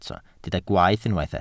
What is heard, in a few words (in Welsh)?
"gwaeth", Welsh